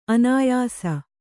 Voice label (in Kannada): "ಅನಾಯಸ", Kannada